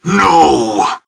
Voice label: heavy pain; zero